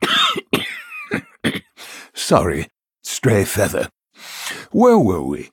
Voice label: Male Human; Khadgar